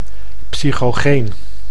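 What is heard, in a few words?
"psychogeen", Dutch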